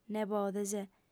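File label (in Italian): "nebodes", lingua sarda, fem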